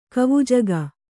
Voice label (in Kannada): "ಕವುಜಗ", Kannada